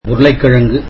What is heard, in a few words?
tamil potatoes